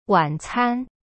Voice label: "晚餐" wan can, chinese